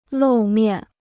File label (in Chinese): "露面", Chinese